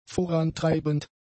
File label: "vorantreibend", German; adjectives